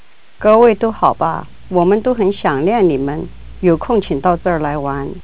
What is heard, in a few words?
mandarin; voyager